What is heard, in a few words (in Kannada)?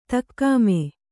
"ದಗಮು", Kannada